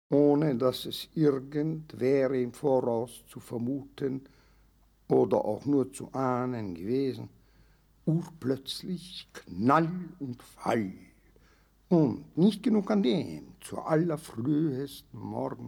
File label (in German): erzaehlung